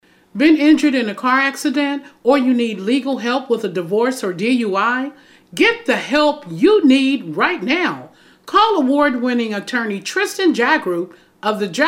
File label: RADIO AD